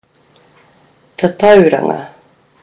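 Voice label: "tatauranga", Māori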